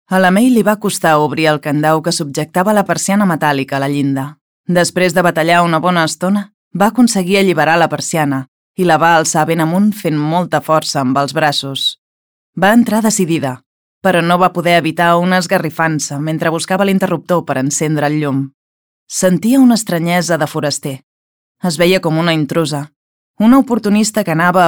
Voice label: catalan audiobooks

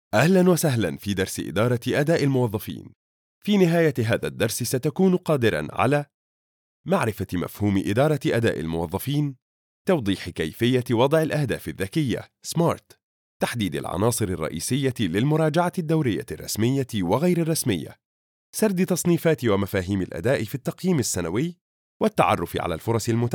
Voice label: arabic e-learning

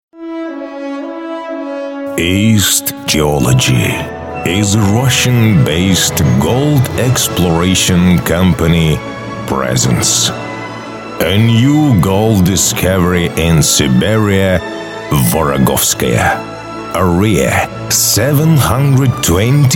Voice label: english commercial

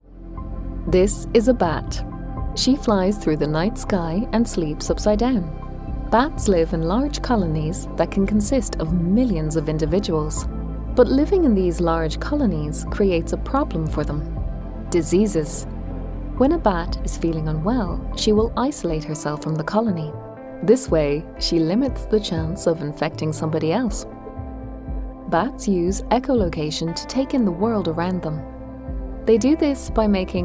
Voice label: english irish documentaries

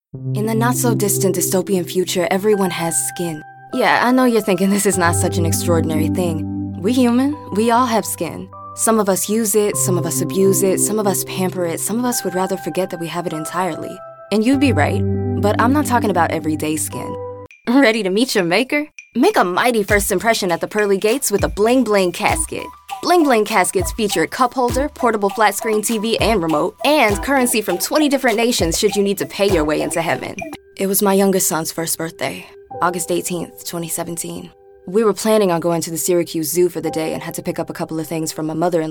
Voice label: english american others

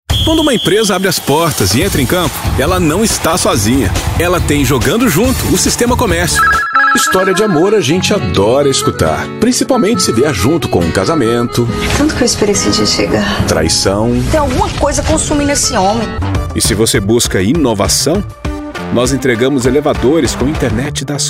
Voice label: portuguese brazilian commercial

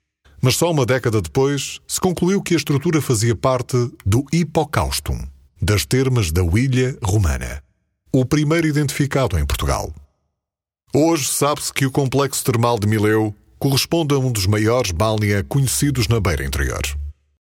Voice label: portuguese documentaries

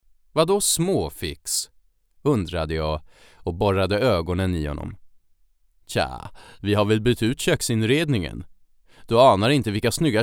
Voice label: swedish audiobooks

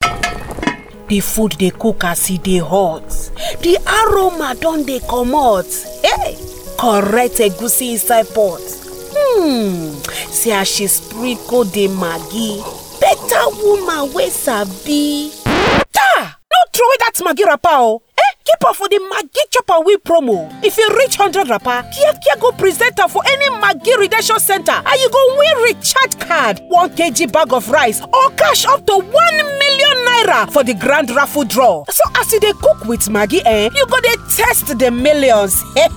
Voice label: english african

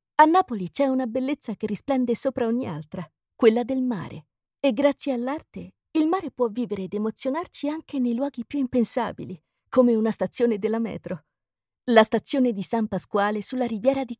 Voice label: italian explainer videos

